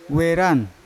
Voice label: "weran" betel nut, Yawa